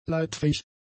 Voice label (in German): "leitfähig", German; adjectives